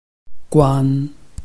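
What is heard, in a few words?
"guan", Chinese, tone 1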